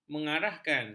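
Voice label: "mengarahkan", Malay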